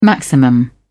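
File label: "maximum", British English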